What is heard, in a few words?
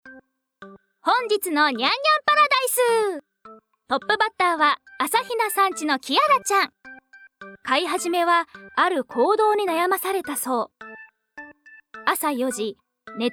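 narration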